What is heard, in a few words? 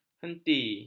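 "henti", Malay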